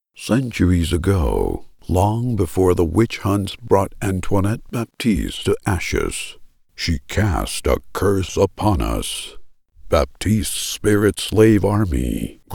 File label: Mystery Horror Story Telling